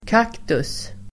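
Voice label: "kaktus", Swedish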